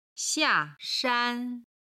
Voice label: "xia4 shan1", Chinese